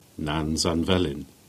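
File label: "Lawellan" Cornish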